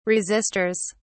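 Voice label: "resistors", British English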